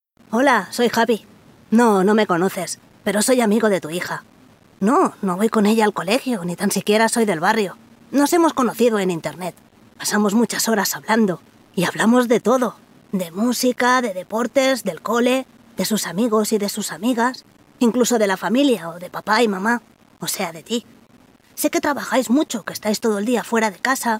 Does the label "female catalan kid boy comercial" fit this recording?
Yes